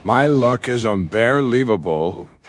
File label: happy; fertig classic games